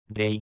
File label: "rey", Spagnolo; Y (o hie); Fonetica